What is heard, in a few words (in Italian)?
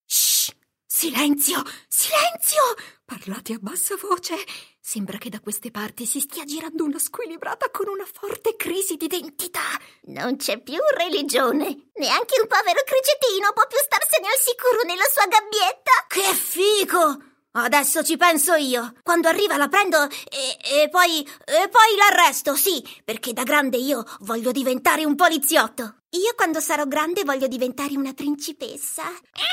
caratterizzazioni